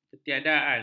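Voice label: "ketiadaan", Malay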